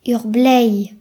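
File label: "wolf", Breton; gcompris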